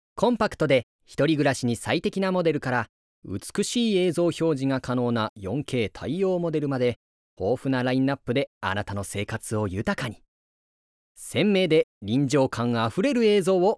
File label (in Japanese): ナレーション